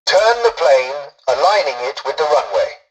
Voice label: tutorial dialogue, english; War Thunder

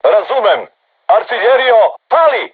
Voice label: voice message art shoot, crew tanks serbian

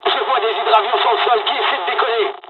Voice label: dialog, dialogs french; War Thunder

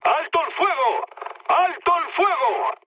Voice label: player friendly fire, mood med, speech; War Thunder

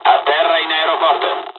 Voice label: mission fly, dialogs italian; War Thunder

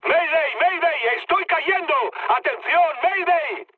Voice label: aircraft destroyed mood med; speech; War Thunder

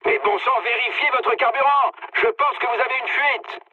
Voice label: tank damaged mood med, speech fr; War Thunder

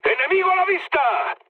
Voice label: enemy detected, mood med, speech; War Thunder

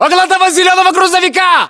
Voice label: landmark near truck green, ru

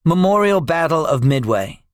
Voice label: debriefing, english; midway; War Thunder

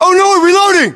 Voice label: inform reloading, battlechatter, English, US

